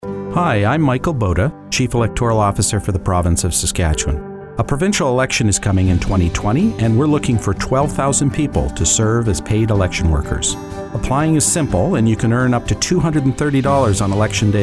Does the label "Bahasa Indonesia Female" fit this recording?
No